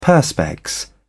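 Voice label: "perspex", British English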